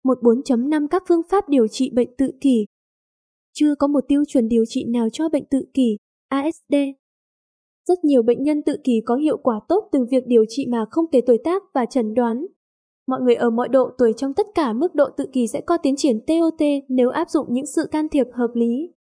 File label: Female